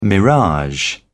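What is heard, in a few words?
"mirage", British English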